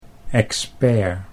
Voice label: "expert", Dutch